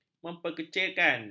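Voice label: "memperkecilkan", Malay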